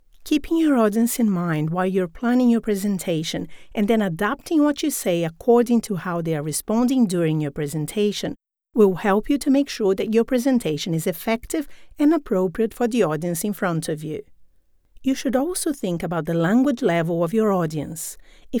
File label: Elearning Business International English